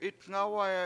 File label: "itNGAwaya", Washo; dirt